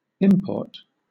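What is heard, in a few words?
"import", British English; pronunciations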